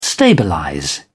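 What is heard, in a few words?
"stabilize", British English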